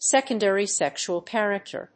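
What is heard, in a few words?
"secondary sexual character", English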